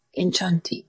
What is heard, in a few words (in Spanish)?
Voice Acting es